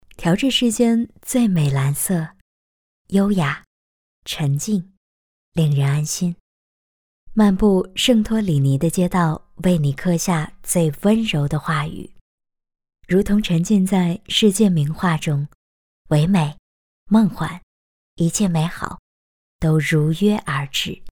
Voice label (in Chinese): 广告配音干音